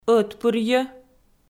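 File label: "ӧтпырйӧ" Komi, female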